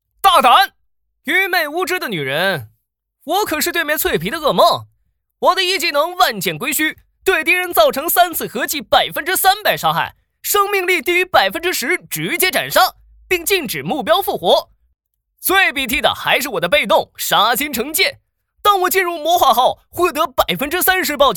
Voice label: 游戏男

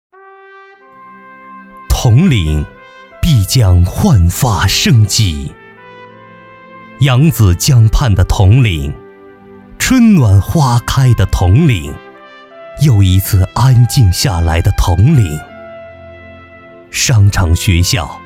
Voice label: 宣传类 朗诵 读诗

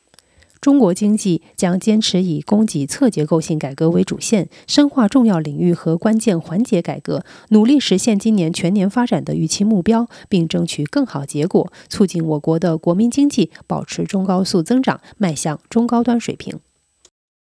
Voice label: 新闻类

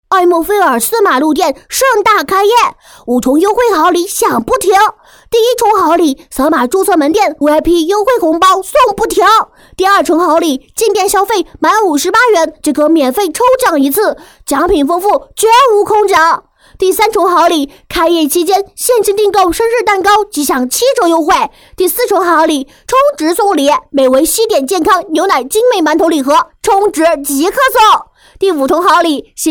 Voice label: 男童声促销

